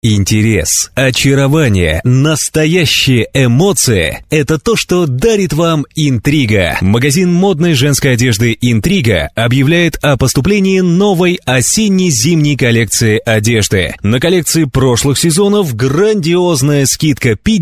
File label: russian m commercial; voice demos